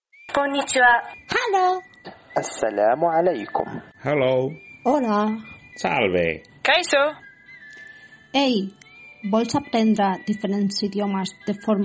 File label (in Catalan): falca publicitària